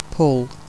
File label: "pull", Phonetics